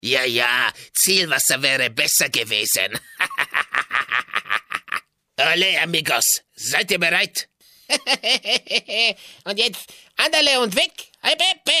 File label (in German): Spanisches Insekt Comic